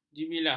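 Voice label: "jibilah", Malay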